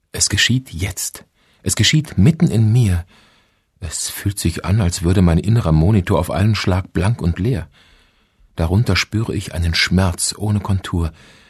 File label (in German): Feature Erzaehler; Lebenszeichen; WDR